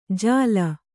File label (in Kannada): "ಜಾಲ", Kannada